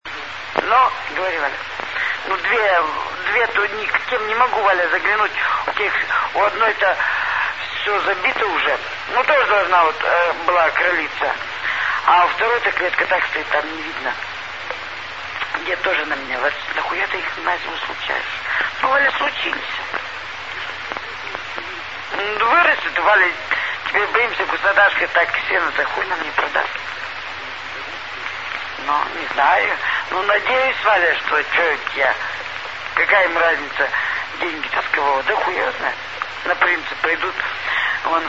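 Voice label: Russian Phones, Satcom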